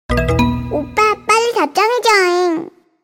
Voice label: nada notifikasi WA korea oppa

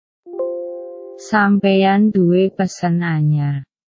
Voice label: nada dering wa jawa pesan anyar

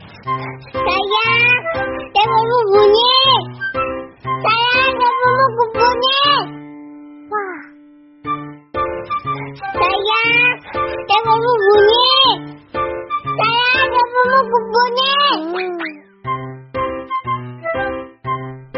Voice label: nada dering sayang telponmu bunyi versi bayi

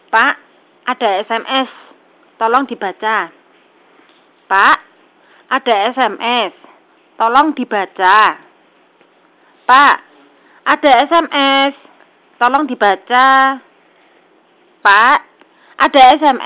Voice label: nada dering ada sms medok jawa

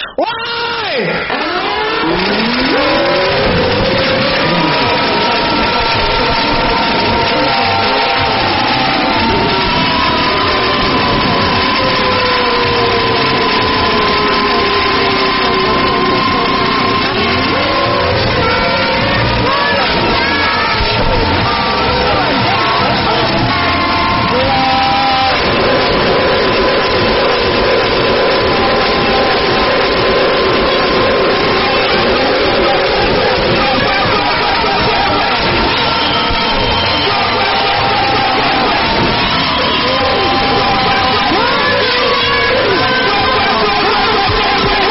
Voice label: nada dering alarm sahur brutal

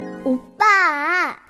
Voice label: nada dering oppa pendek; Oppa Pendek (오빠)

